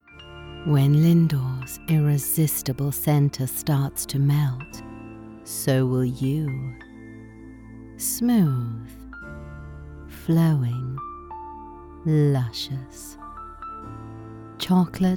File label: english south african promos; actriz de doblaje profesional en inglés; british